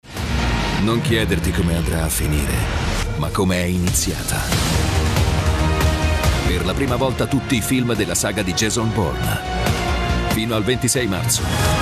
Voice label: Italian voices, Trailer

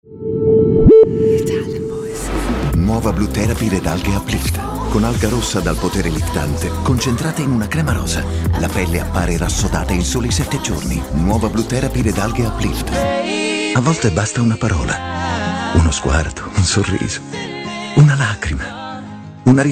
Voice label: ITALIAN VOICES Smooth Warm

